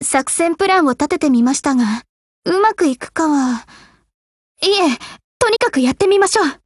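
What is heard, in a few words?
OPERATION BEGIN Japanese, Voice; GF Alarm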